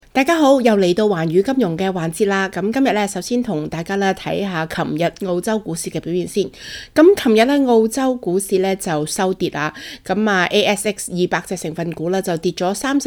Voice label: cantonese; 環球市場淡靜 有何版塊值得注視？; 《寰宇金融》; SBS Chinese